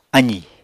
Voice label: "azì", Apatani